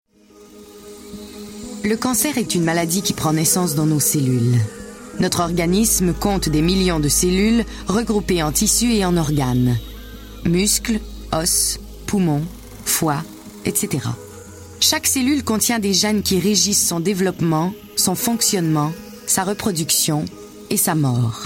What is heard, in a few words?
narration